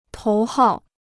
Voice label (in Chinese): "头号", Chinese